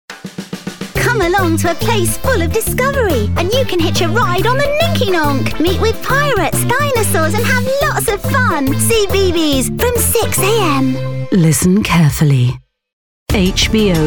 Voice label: TV RADIO PROMO

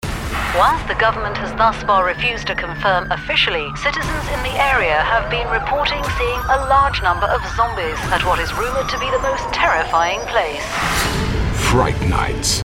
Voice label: UK; THORPE PARK

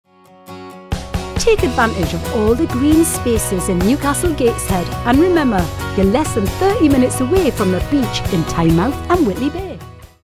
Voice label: NEWCASTLE

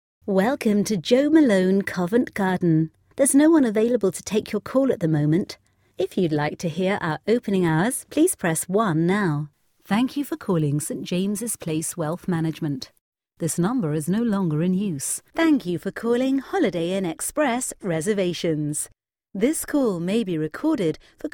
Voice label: ON HOLD IVR

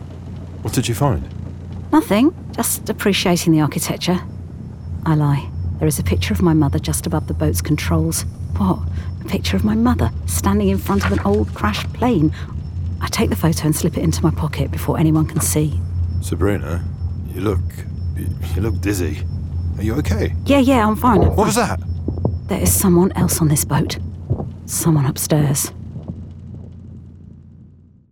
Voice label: DRAMA NARRATOR WITH INTERNAL MONLOGUE

